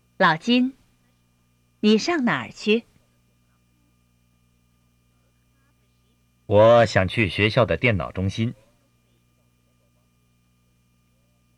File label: Dialogue Slow Speed